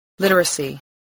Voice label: "literacy", spelling